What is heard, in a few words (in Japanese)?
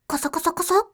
"kosokosokoso", voice